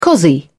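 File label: "cossie", British English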